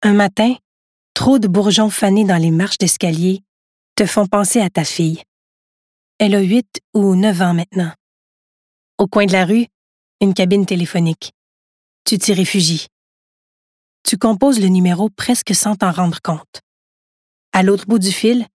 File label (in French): Livre Audio